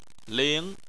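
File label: "ling", Chinese, second tone; Chinese Surnames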